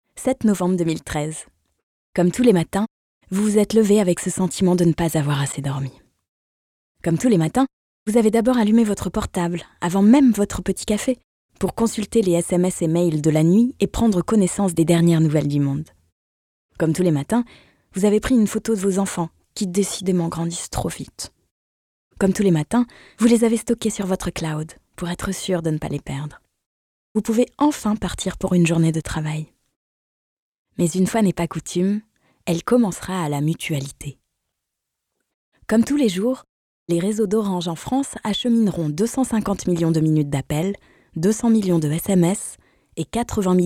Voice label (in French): VOIX OFF INSTIT Complice Informative Narration